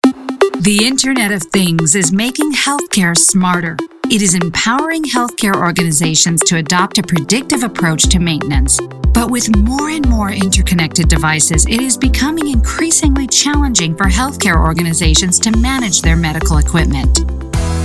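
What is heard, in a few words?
Narration American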